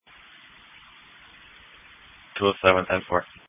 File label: Police Dispatch